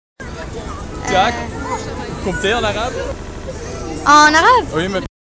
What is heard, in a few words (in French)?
compter arabe